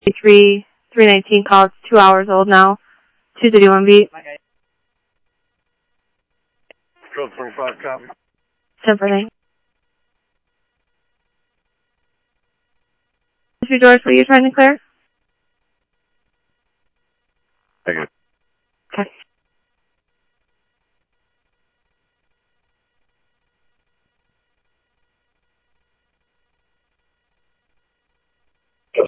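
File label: police department, scanner audio; PHOENIX PD-200 A; ThompsonPeakHigh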